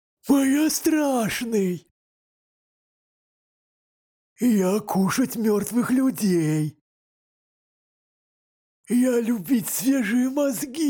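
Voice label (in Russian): вурдалак для игр, Дикторы пародисты; parodist; comic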